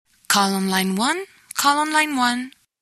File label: Hot Voice